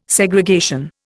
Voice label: "Segregation", Indian